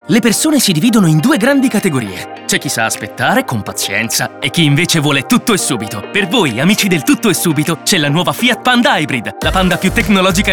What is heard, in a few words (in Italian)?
istituzionale; fiat panda